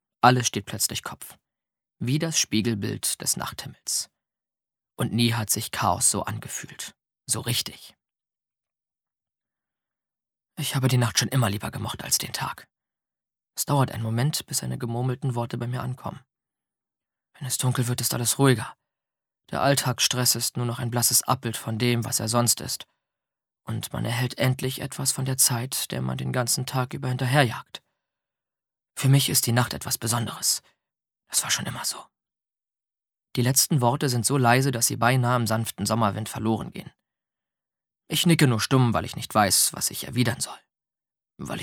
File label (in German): hoerbuch hoerprobe